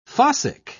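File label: "fossick", English, British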